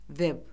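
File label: "veb", Azerbaijani; Orfoqrafiya Lüğəti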